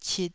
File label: "cit2", Taiwanese